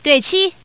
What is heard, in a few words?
Woman